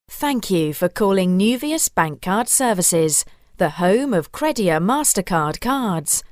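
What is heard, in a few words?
British IVR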